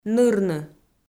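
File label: "нырны", Komi, female; audio dict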